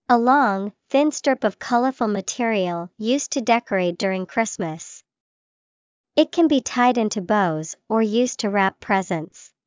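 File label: ribbon, English vocabulary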